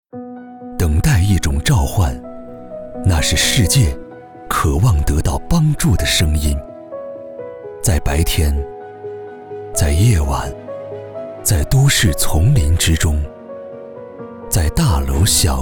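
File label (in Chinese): 男, 广告, 公益广告青年志愿者; 公益广告浦东青年志愿者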